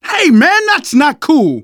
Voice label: friendly fire, manager, survivor, voice

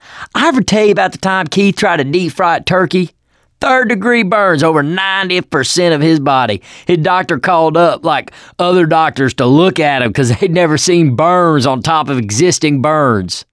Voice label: stories, survivor voice; ellis; Left 4 Dead 2; mechanic

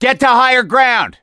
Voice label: misc directional, voice; gambler

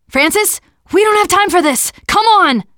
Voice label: revive friend loud, teen girl

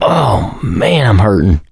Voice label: going to die, survivor voice mechanic

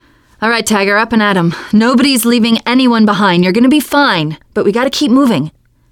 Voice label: revive friend, teen girl

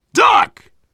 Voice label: down in front, survivor voice biker; Left 4 Dead 2

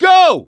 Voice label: emphatic go, voice; gambler

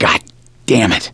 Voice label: reaction negative, voice; gambler

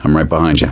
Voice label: lead on, voice; gambler

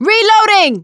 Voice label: reload intense, survivor voice; producer

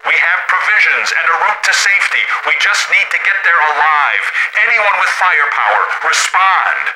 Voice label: radio initial broadcast

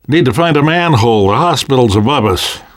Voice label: world hospital, namvet, survivor voice

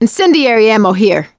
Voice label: incend ammo, voice; survivor; producer

